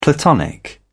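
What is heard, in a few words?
"platonic", British English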